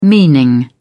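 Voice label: "meaning", British English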